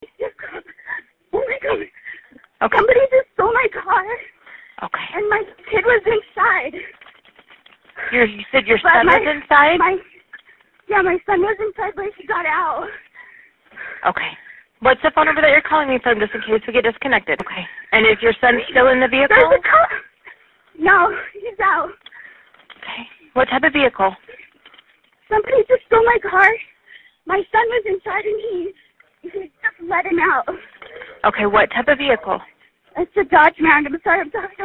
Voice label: 911 Calls; Tooele Carjacking